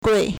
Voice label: "gui4", Chinese